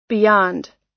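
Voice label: "beyond", US English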